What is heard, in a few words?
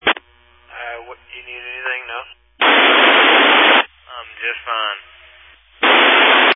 Family Radio Service (FRS); Waco Surf Park